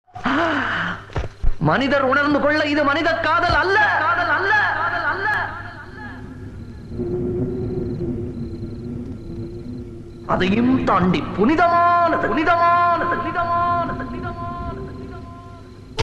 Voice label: love dialogue, Tamil